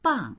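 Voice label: "bang", Mandarin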